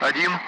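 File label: Speech Russian; Allied Flight; Digits; DCS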